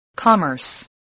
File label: "commerce", voice